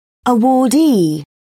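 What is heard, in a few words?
"awardee", British English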